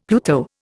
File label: "Pluto", Indian; Dictionary